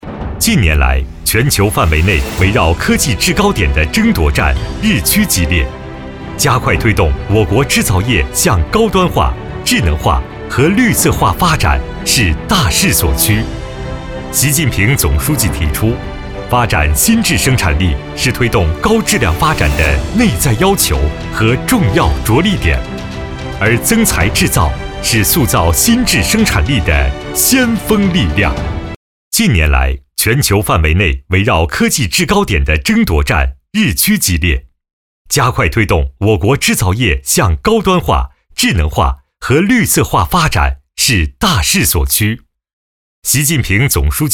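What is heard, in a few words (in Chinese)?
男 宣传积极, 浑厚大气, 普通话; 浙江超领智能科技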